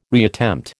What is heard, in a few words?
"reattempt", American